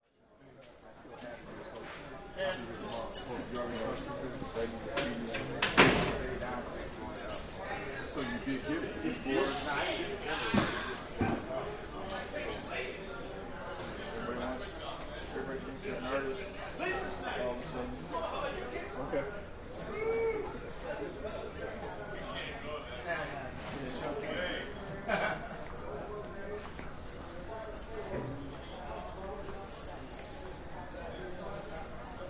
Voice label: Police Station Jail, walla